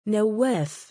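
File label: "nawwaaf", Arabic, male; given name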